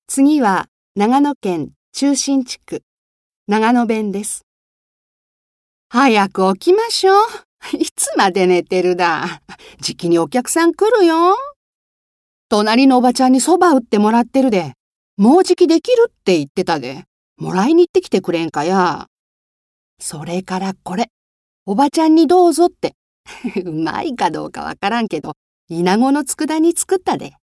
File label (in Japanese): 方言